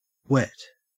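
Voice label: "wet" English, Australian; pronunciations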